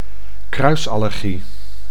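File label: "kruisallergie", Dutch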